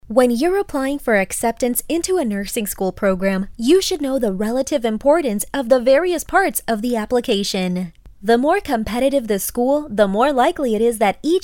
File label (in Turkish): İngilizce Kadın